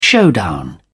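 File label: "showdown", British English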